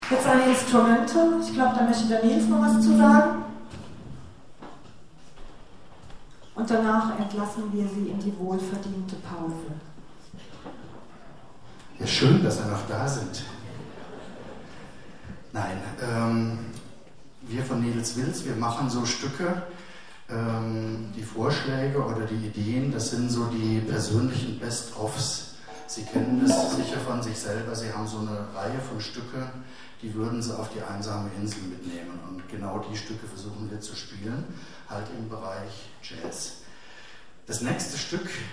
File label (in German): Ansage; Konzert 22. Hermeskeiler Kulturherbst (Johanneshaus, Hermeskeil)